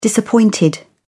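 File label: "disappointed", British English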